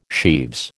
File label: "sheaves", American